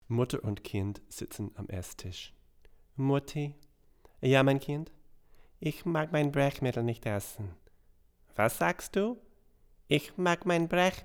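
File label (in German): VO German, vintage AKG C414 COMB condenser; VOICE OVER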